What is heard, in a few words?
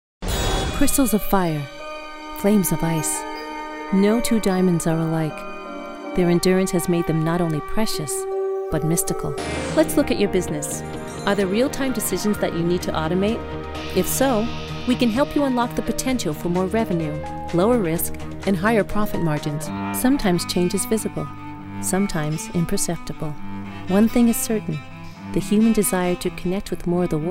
narration